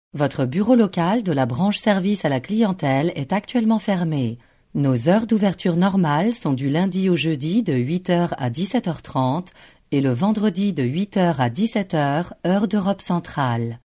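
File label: French, female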